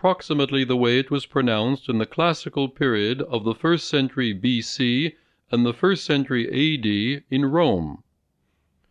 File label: Latin Pronunciation Sample; Bolchazy